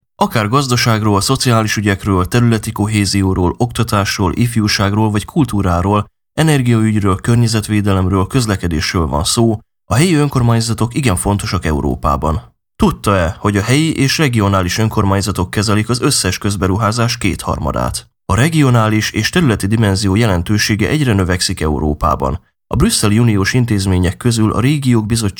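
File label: Hungarian, male, various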